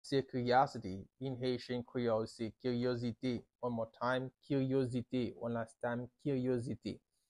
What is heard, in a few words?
"Kiryozite" Haitian Creole pronunciation by a native Haitian Creole; How to say Curiosity in Haitian Creole